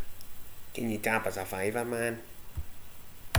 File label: "tap", slang; Ah huvnae seen ye aboot